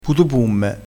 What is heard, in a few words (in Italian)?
"putupumme", crespinese